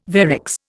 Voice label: "varix", Indian

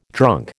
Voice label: "drunk", American

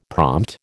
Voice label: "prompt", American

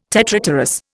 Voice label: "tetrapterous", Indian

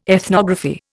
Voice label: "ethnography", Indian

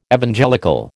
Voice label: "Evangelical", American

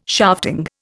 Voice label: "shafting", Indian

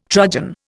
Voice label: "trudgen", Indian

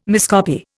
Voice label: "miscopy", Indian

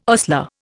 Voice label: "ostler", Indian

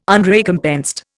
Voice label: "Unrecompensed", Indian

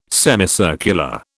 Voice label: "semicircular", British

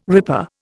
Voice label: "ripper", Indian

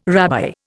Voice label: "rabbi", Indian

